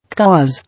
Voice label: "Cause", English